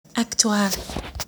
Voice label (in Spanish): "actuar" Spanish; how to learn spanish